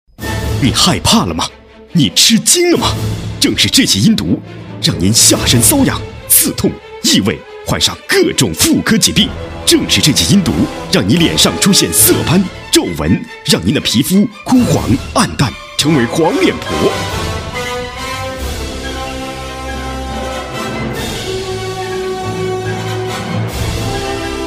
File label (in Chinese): 男 电购直销 药品类